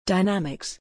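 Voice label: "dynamics", English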